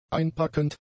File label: "einpackend", German; adjectives